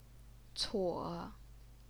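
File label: "cuo", third tone, Chinese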